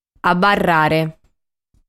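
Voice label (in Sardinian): "Abarrare", Sardinian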